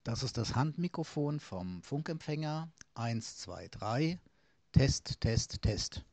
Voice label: Funk Handmikrofon, mikrofon test sprachqualitaet; Funk Handmikrofon und Funk Empfänger

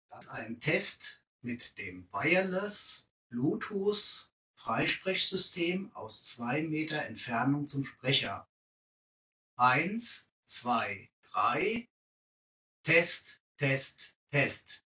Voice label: cp935w 2m abstand, 2 Meter Abstand zum Sprecher

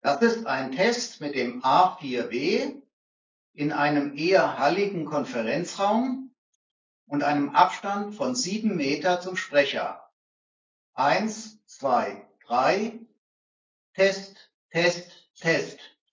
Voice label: Aufnahme, Mikrofon Test Sprachqualität; 7m

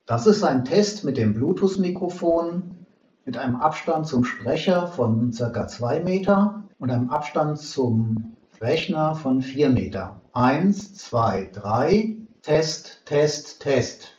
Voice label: mit AI 2m Sprecher 4m BT Rechner, mikrofon test sprachqualitaet; 2 Meter Abstand zum Sprecher; COMREON® WM03-BT (Bluetooth wireless)

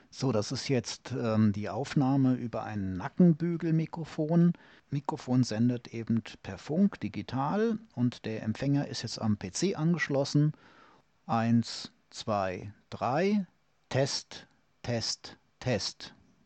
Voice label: headset funk mikrofon test; Geräte Klasse: wireless Headset Audio Test; wireless Headset Funk Mikrofon - geprüfte Qualität

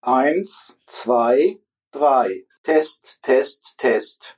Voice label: CR415 test mic seitlich; COMREON® Compactbar™ CR415; mikrofon test sprachqualitaet

